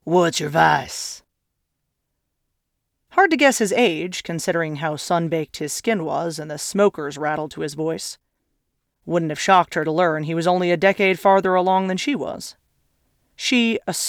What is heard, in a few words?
Four Voices Cowboy Conversation